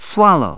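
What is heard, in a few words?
"swallow", English word; 単語絵カード * どうぶつ; つばめ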